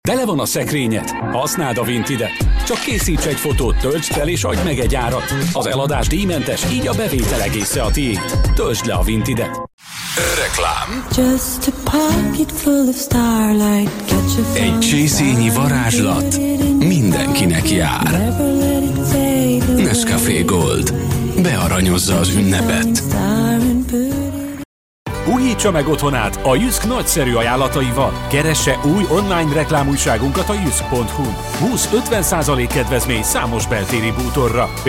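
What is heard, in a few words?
Hungarian, Commercial; GoLocalise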